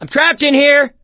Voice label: call for rescue, survivor voice

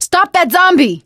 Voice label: fallen survivor, survivor voice; Left 4 Dead 2; Source Engine; video games; producer

